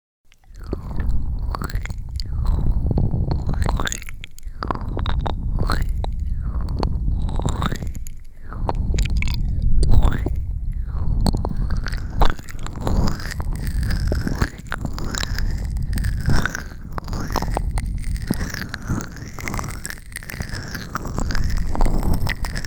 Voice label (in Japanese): ぐっぽり MIX BGMなし, ASMR